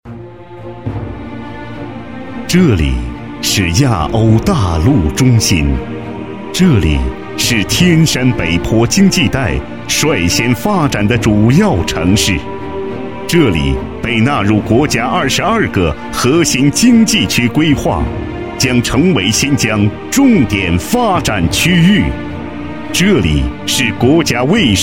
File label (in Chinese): 男 专题 大气, 国语男声配音, 浑厚; 昌吉市介绍